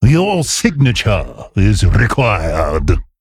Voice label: Male Worgen Play

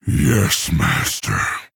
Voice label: Male Orc Victory

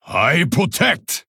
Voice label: Male Pandaren Attack

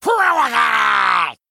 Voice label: Male Murloc Play

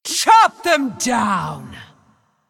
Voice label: Female Ancient Attack

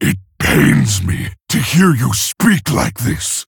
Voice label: Male Orc; Hero; Thrall; Garrosh; Mission8 Emote Response

